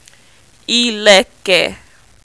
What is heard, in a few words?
"Ileke", Yoruba